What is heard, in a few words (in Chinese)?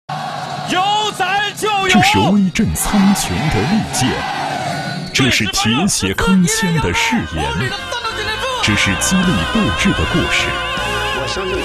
男 栏目包装 栏目旁白, 国语男声配音, 浑厚磁性; 军事频道